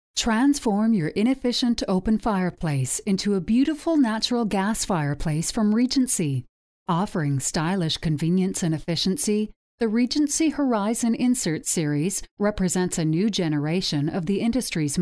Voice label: Narration Female; Narration, Corporate, Documentary

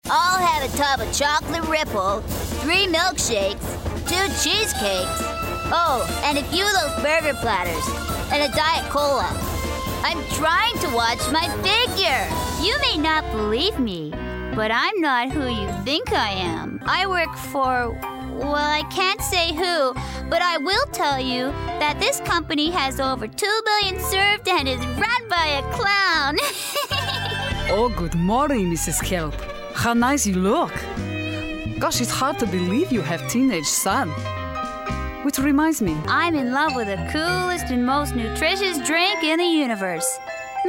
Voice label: characters female

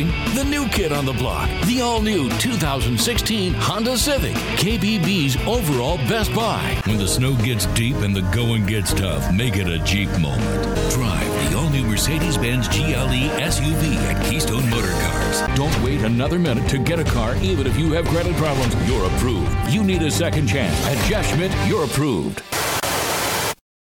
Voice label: Auto Dealer Male

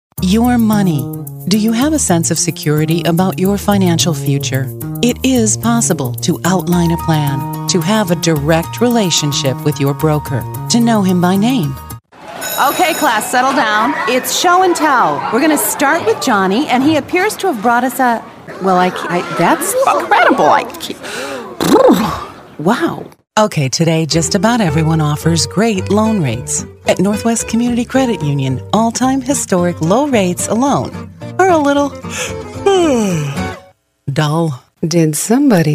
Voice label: COMMERCIAL

